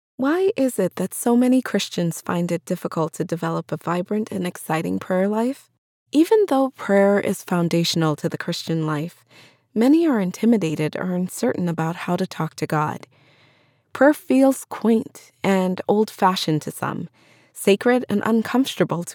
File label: Religious Spiritual Self Help, audiobooks